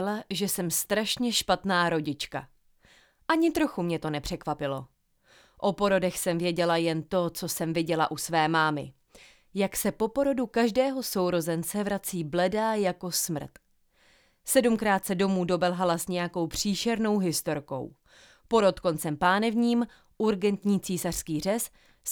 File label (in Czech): čte úryvek z knihy; Jak být ženou